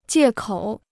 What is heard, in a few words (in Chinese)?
"借口", Chinese